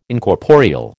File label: "Incorporeal", American